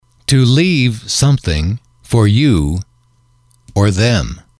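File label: to leave something for you them, English, male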